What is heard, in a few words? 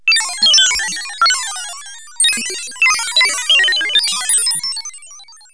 Robot Talk; Space; Creatures; 16-bit; KABOOM! - Wild and Wacky Sound Software; 1,000 Sounds